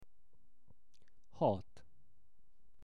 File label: "hat", Hungarian; szavak es kifejezesek